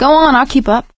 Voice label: lead on, survivor voice; source engine; left 4 dead 2; producer

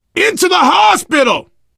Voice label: world hospital, player survivor voice biker

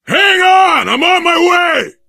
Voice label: to the rescue, survivor voice biker

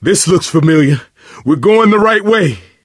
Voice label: misc directional, coach, survivor voice

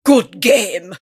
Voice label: admin summary cheer; compmode

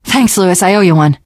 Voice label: thanks, teen girl; survivor voice

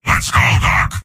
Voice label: soldier activate charge; source engine; tf; mvm

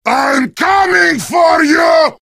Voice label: heavy taunts; video games; source engine; tf

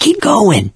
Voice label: emphatic go quiet, mechanic, survivor, voice; source engine; left 4 dead 2

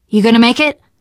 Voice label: revive friend, teengirl; video game; Left 4 Dead; Source Engine; survivor

